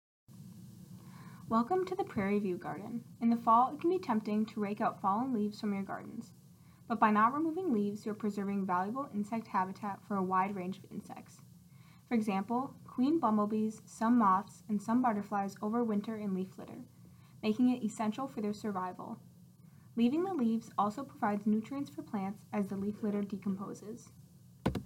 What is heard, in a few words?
Fall Prairieview Garden ENG